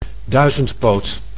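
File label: "duizendpoot", Dutch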